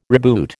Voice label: "reboot", American